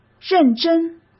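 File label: "认真", Mandarin Chinese; chinese-flashcards; hsk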